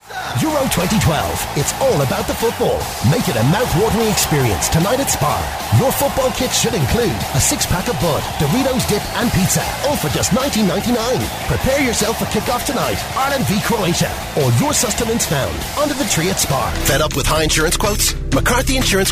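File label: English Irish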